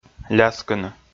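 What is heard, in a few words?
"ляскыны", Komi, male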